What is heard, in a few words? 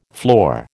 "floor", American